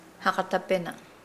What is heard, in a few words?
"be prepared", Nukuoro